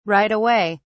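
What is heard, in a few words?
"right away", British English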